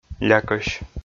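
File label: "лякӧсь", Komi, male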